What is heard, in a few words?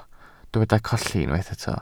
"colli", Welsh